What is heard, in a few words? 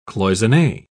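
"cloisonne", British English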